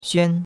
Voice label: "xuan1", chinois; Cours-de-Chinois

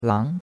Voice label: "lang2", chinois; Cours-de-Chinois

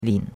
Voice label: "lin3", chinois; Cours-de-Chinois

